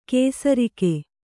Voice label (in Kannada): "ಕೇಸರಿಕೆ", Kannada